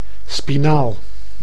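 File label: "spinaal", Dutch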